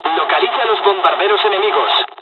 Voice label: dialogs spanish; War Thunder

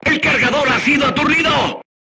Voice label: voice message charger contused, Spanish

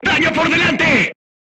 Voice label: voice message shot front, Spanish

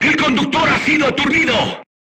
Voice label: voice message driver contused, Spanish

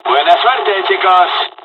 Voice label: dialogs spanish; War Thunder

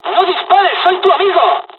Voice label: dialogs spanish; usa; War Thunder

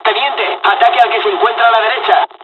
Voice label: dialog, dialogs spanish; us midway; War Thunder

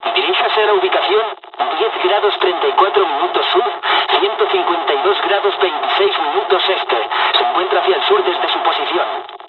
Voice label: dialogs spanish; War Thunder

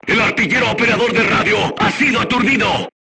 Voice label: voice message machine gunner contused, Spanish

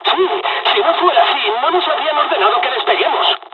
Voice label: dialog, dialogs spanish; War Thunder

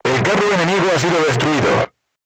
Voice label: voice message target destroyed, Spanish

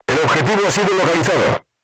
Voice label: voice message target lock, Spanish

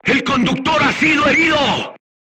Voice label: voice message driver wounded, spanish

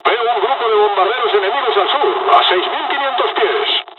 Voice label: dialog, dialogs spanish; War Thunder

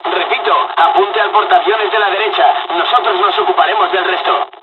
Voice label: dialog, dialogs spanish; us midway; War Thunder

